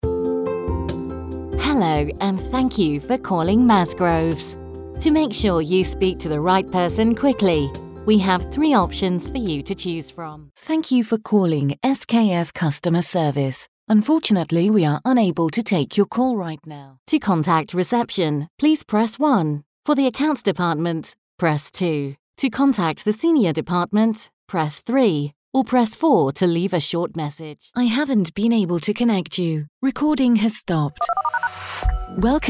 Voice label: Telephone